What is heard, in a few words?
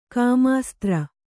"ಕಾಮಾಸ್ತ್ರ", kannada